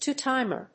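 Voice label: "two-timer", English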